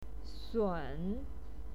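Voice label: "sun3", Mandarin Chinese pinyin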